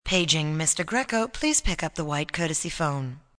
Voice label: Paging, PA, female; Pa Announcement; Paging Grecko2; VoiceBox